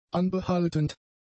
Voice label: "anbehaltend", German; adjectives

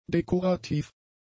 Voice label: "dekorativ", german; adjectives

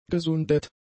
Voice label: "gesundet", german; adjectives

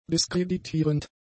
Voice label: "diskreditierend", German; adjectives

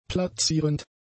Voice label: "plazierend", German; adjectives

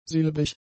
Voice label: "silbrig", German; adjectives

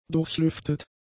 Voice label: "durchlüftet", German; adjectives

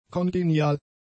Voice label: "kongenial", German; adjectives

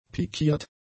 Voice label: "pikiert", German; adjectives

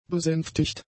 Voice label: "besänftigt", German; adjectives

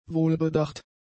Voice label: "wohlbedacht", German; adjectives

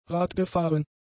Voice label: "radgefahren", German; adjectives

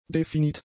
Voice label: "definit", german; adjectives

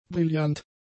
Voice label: "brillant", German; adjectives

